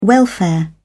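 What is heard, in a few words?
"welfare", British English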